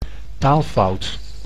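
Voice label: "taalfout", Dutch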